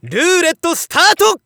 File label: Narration GAMBLE roulette